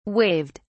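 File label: "waived", British English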